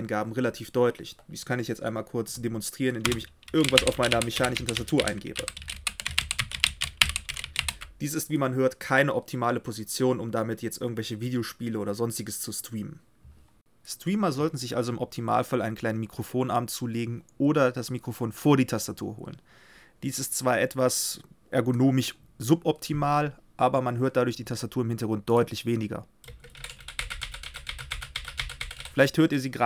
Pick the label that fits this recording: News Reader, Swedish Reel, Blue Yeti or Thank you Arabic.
Blue Yeti